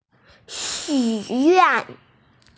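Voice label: "xuyuan", Mandarin Chinese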